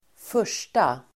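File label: "första", Swedish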